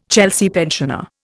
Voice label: "Chelsea pensioner", Indian